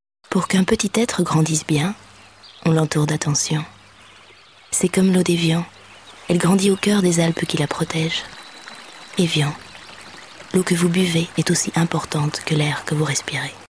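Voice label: FR F commercial, French, female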